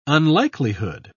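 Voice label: "unlikelihood", English, British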